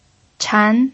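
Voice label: "chan", pinyin, second tone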